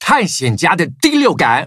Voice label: level up, zh-hant; Cookie Run